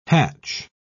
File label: "hatch", pronounce